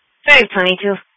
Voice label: Sheriff Dispatch; sdr